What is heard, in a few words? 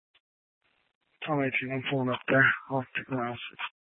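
Police Department Dispatch, scanner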